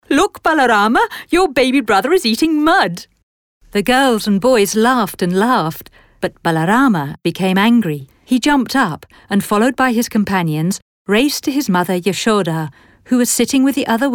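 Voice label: NARR, female / British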